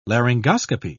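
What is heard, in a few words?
"laryngoscopy", British English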